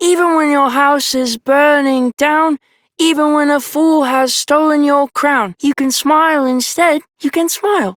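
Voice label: Child Rhymes Happy Child Male; Duviri; Childrens Rhymes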